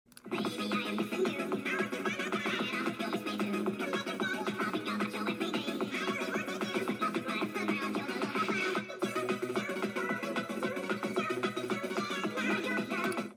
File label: Chipmunk